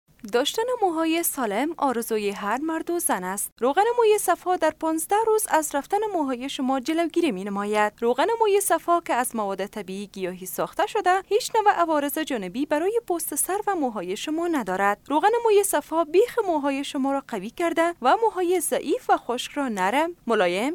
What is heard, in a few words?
dari female